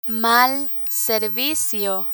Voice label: "mal servicio", Spanish

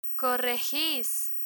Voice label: "corregis", Spanish; irregular spanish verbs; learn spanish

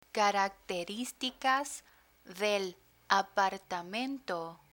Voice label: "caracteristicas del apartamento", Spanish; apartment rental terminology

